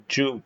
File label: short voyels arabic alphabet